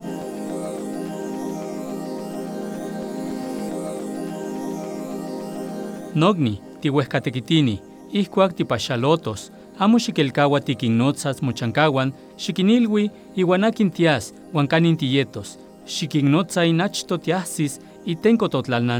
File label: NAHUATL